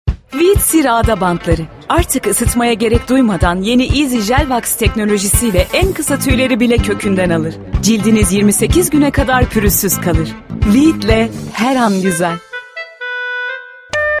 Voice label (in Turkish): reklam gunluk enerjik; veet